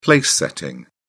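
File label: "place setting", British English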